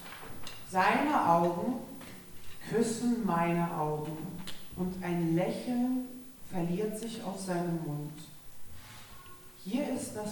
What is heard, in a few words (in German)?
Ansage